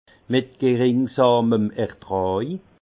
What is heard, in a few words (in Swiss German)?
"ertraj", alsace; vin